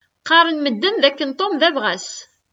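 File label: "Qqaṛen medden dakken Tom d abɣas.", Kabyle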